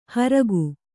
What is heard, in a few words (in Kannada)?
"ಹೋರ್ಕೆ", kannada